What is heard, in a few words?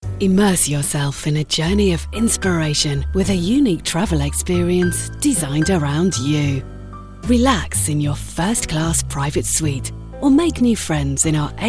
commercial; emirates